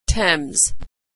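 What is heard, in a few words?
"Thames", American English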